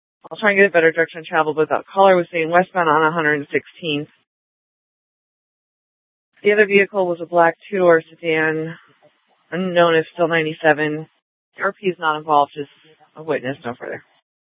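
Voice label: Scottsdale PD North Dispatch; Nth Dispatch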